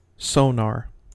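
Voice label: "sonar", US English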